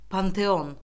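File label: "panteon", Azerbaijani; Orfoqrafiya Lüğəti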